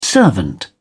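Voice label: "servant", British English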